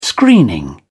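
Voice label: "screening", British English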